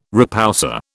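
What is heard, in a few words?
"repoussé", British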